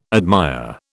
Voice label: "admire", British